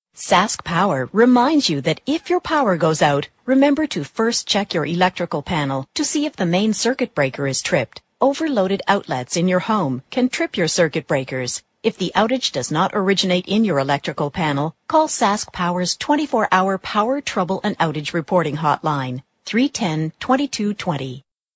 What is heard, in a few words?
female